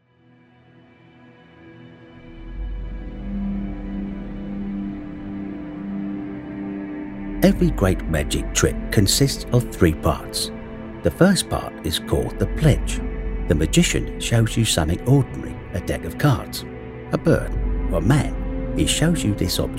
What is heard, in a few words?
british voiceover, prestige soundalike; CELGENE VIDEO; michael caine; impression